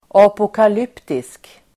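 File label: "apokalyptisk", Swedish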